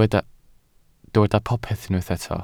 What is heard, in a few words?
"popeth", Welsh